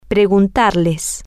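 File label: toAskYouThem, Spanish, female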